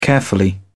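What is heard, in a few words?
"carefully", British English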